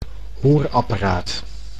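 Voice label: "hoorapparaat", Dutch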